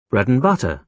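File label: "bread-and-butter", British English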